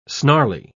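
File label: "snarly", spoken word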